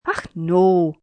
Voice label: "Ach No", Scots, male; Response